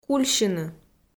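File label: "кульсьыны", Komi, female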